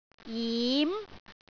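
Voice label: "jim2", Cantonese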